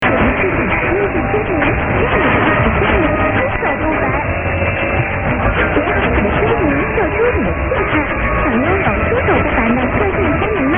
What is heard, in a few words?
unid chinese or eastern